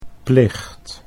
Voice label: "plicht", Dutch